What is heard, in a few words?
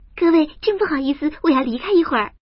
woman; guizhou